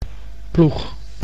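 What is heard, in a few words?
"ploeg", Dutch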